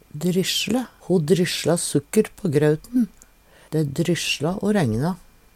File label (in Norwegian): "dryssle", Numedalsmål